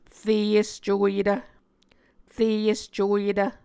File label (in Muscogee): "re escoyetv", muscogee